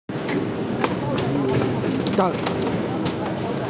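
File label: "zi3dan4", Mandarin Chinese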